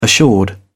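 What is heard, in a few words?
"assured", British English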